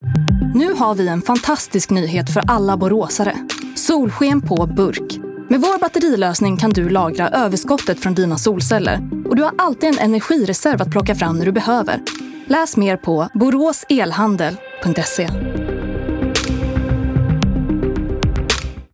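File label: Swedish female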